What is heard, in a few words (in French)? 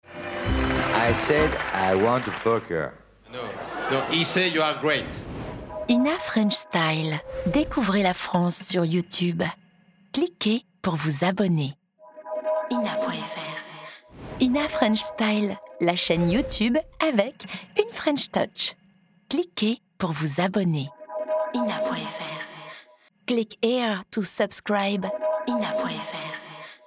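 mutine clin doeil, voix off; French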